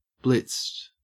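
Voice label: "blitzed", English, Australian accent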